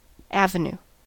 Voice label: "avenue", us